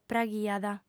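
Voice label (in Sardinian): "praghiat", female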